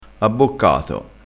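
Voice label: "abboccato", Italian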